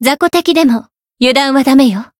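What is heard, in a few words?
encounter, jp; hisumena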